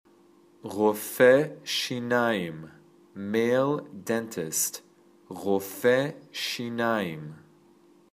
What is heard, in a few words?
Male Dentist